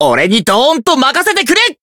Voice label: Voice chant, jp